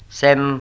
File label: "sebm", Proto Germanic